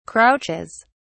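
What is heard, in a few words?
"crouches", British English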